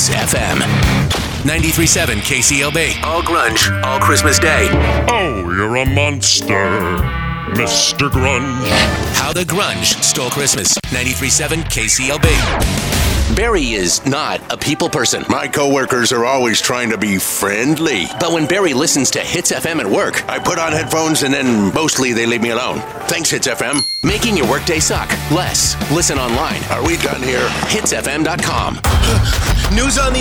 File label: radio men, radio imaging